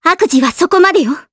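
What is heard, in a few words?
voice Skill, voices heroes, Japanese; Kirze; kingsraid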